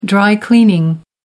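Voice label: "dry cleaning", British English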